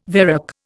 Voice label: "varec", Indian